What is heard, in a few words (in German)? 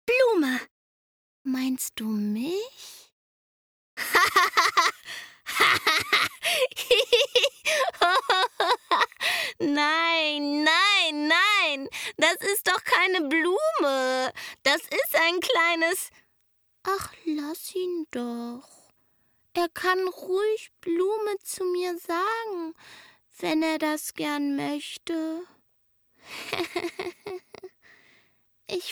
sprecherdemo kind; bambi